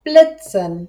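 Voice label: "blitzen", German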